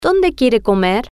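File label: "Donde Quiere Comer", Spanish, female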